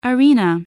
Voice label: "arena", English